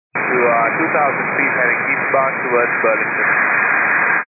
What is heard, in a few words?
122.925 MHz; scanner radio